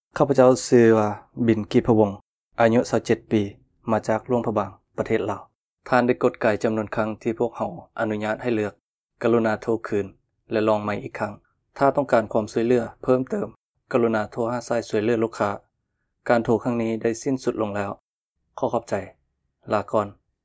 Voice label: Lao male; Foreign language voice over